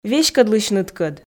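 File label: "веськӧдлысьныдкӧд", Komi, female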